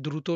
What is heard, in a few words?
Male